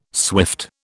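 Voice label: "swift", British English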